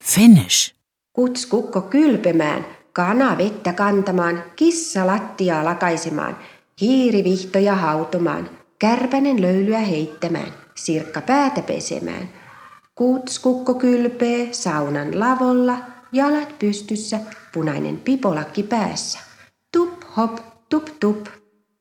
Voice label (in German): Finnisch, kinderverse